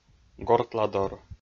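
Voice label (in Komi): "гортладор", Komi, male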